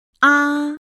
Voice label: "ā", Pinyin; PinyinChart

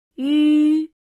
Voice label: "ǖ", pinyin; PinyinChart

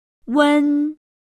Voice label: "uen(un)", Pinyin; PinyinChart

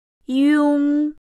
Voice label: "iong", Pinyin; PinyinChart

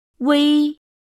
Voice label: "uei(ui)", Pinyin; PinyinChart

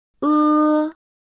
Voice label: "ē", pinyin; PinyinChart

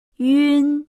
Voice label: "ün", pinyin; PinyinChart

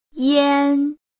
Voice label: "ian", pinyin; PinyinChart

